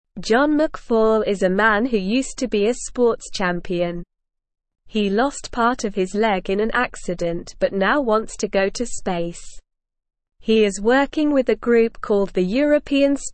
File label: English SLOW Reading; John McFall From Sports Champion to Space Explorer